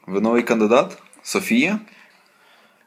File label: dialogue, Ukrainian